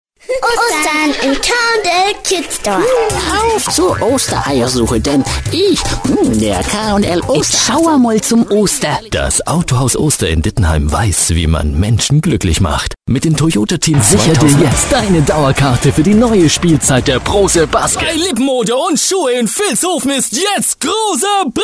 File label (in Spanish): comercial alemán